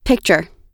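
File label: "picture", US English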